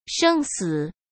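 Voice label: "生死", Mandarin Chinese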